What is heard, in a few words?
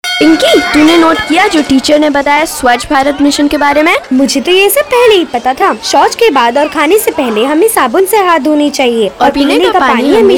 RADIO SPOT swachhbharat